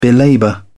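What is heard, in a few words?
"belabour", British English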